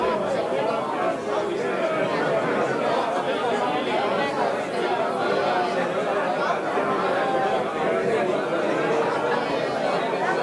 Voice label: Bar Chatter; fisk city